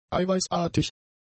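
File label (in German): "eiweißartig", german; adjectives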